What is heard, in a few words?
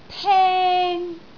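"teng1", Cantonese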